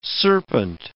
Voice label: "serpent", English

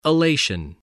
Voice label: "elation", English

